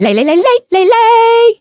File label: variable chant; lele